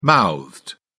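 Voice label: "mouthed", British English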